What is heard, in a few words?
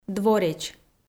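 "двореч", Komi, female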